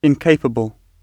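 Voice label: "incapable", British English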